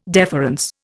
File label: "deference", Indian